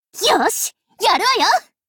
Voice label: warcry

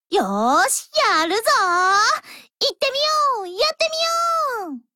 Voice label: warcry

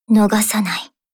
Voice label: warcry

